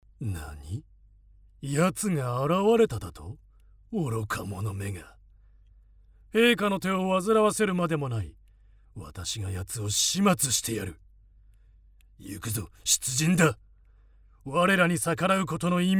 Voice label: male